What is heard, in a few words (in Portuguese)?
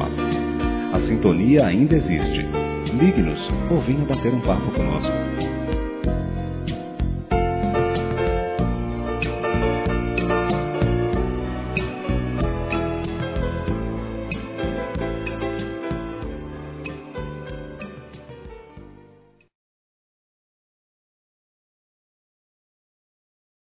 VOLTAR A SER CLIENTE M, Telemensagens; Empresarial; masc; Telemensagens 2017